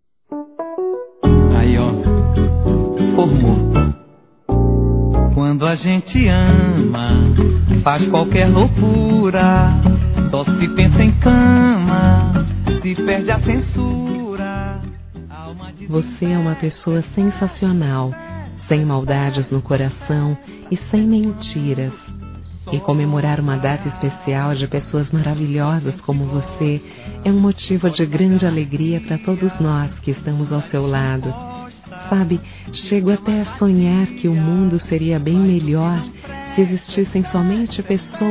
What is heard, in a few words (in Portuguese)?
GERAL F